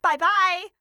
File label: "bye bye", voices